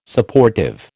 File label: "Supportive", American